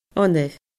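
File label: "onair", Scottish Gaelic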